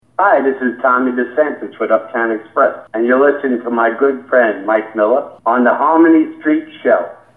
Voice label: promo